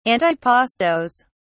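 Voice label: "antipastos", British English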